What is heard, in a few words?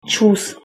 goodbye, czech